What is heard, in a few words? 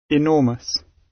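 "enormous", British English; Time, history; Thời gian, lịch sử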